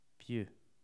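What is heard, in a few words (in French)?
"pieu" French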